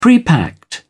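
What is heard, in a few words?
"prepacked", British English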